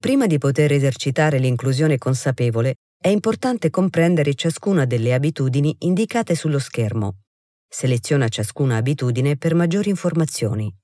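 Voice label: Italian elearning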